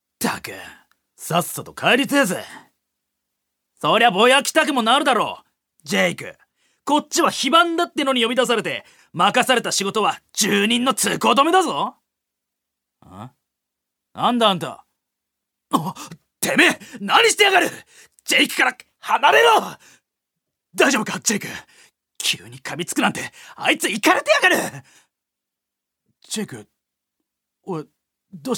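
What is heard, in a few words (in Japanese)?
セリフ, 男性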